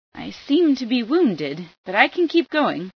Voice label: i wounded, female